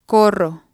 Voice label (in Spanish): "corro", spanish